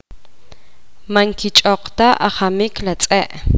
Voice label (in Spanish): poqomam los perros no corren estan corriendo